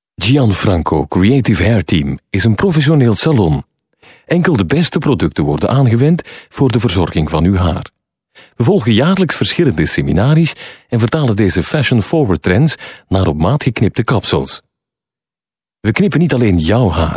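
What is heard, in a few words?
flemish m narration, Flemish, male; dutch; voice demos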